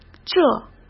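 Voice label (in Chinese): "这", Mandarin Chinese; chinese flashcards; hsk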